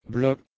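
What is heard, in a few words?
"bhlog", Proto-Indo-European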